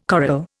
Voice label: "coral", Indian